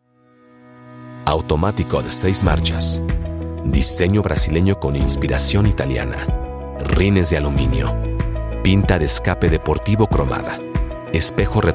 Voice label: spanish latin american automotive